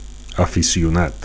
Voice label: "aficionat", Catalan; 2. amateur

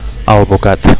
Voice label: "alvocat", Catalan

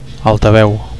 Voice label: "altaveu", Catalan; loudspeaker

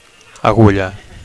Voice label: "agulla", Catalan; (f) needle; pin; (f) [TRANSP] points (Br.); switch (Am.)

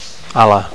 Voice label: "ala", Catalan; (f) wing; (f) [d'un barret] brim

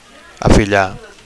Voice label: "afillar", Catalan; to adopt

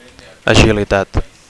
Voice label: "agilitat", Catalan; (f) agility

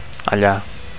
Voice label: "alla", Catalan; there

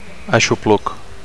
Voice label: "aixopluc", Catalan

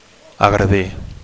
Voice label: "agredir", Catalan; to assault

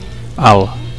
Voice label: "alt", Catalan

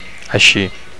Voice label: "aixi", Catalan

